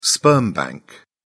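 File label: "sperm bank", English, British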